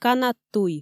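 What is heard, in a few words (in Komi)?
канат туй, Komi, female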